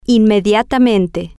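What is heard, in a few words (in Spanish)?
"inmediatamente", Spanish, female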